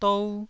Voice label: "dou1", taiwanese syllables